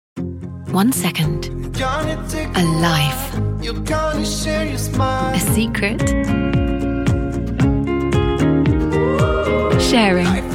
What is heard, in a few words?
advert; Pink Lady